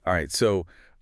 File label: Race Commentary; FASCAR Monza; iRacing